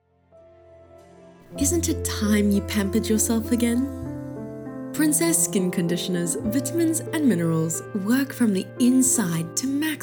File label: English South African, Female